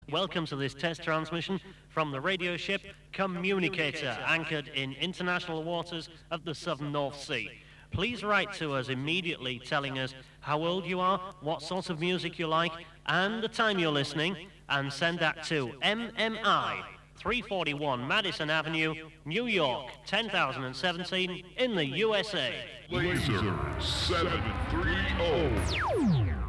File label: test announcement; Laser 730